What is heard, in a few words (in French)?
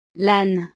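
"lane", French; El burro; navidad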